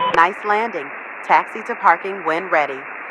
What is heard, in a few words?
Radio atc Safe Landing Player